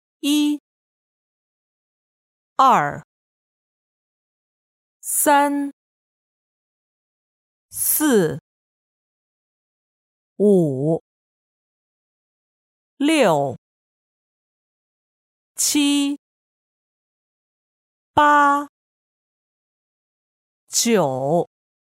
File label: Mandarin